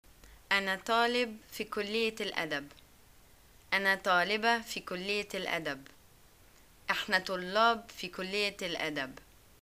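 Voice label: I'm student at, arabic, Egyptian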